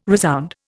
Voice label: "resound", Indian

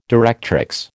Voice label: "directrix", American

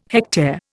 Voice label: "hectare", Indian

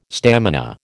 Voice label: "stamina", American

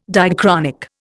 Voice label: "diachronic", Indian

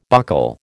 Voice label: "buckle", American

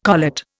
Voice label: "collet", Indian

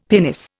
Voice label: "pinnace", Indian; Dictionary

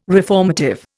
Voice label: "reformative", Indian

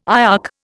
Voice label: "IOC", Indian

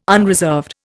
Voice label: "unreserved", Indian

